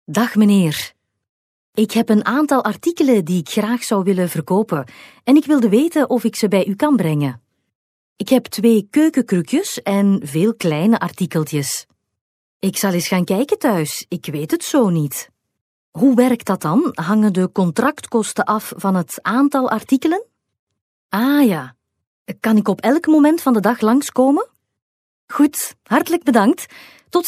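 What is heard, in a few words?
flemish female narration; dutch; voice demos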